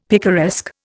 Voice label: "picaresque", Indian; Dictionary

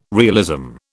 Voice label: "realism", British

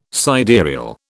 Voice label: "sidereal", British

